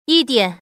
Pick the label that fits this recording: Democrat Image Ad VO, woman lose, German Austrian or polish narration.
woman lose